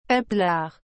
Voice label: "papelard", frengjisht

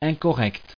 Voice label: "incorrect", frengjisht

